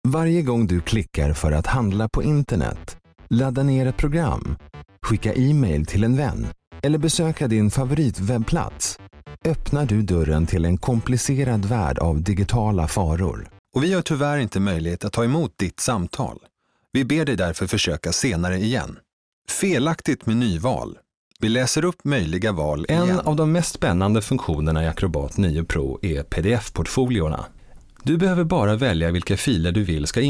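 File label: swedish male commercial; voice demos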